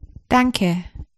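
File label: "Danke" German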